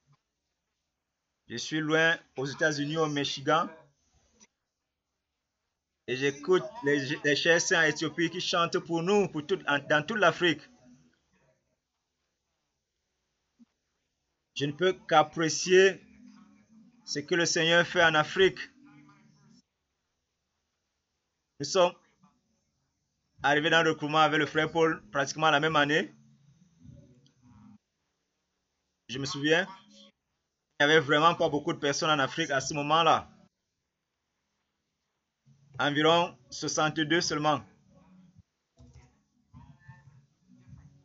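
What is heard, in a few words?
Overflow Message, French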